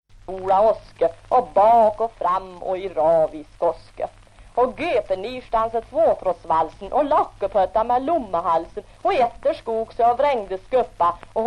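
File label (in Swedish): Järnskog Värmland, dialekter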